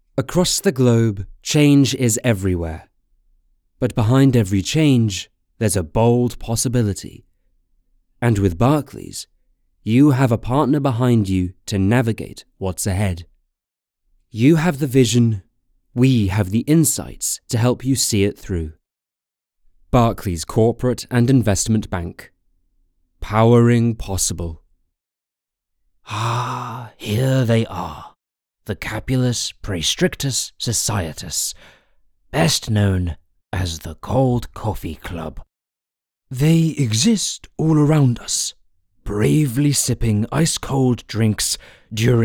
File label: Commercial